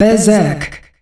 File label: "Berzerk", announcer female; Unreal Tournament; ut2004